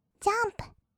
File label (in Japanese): "ジャンプ", kawaii voice; ASMR; 1200 kawaii voice!!; 音ゲー